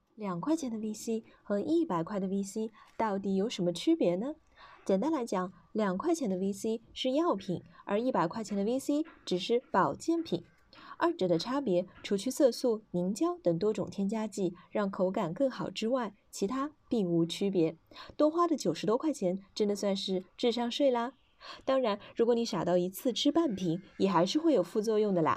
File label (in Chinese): 青春活力版试音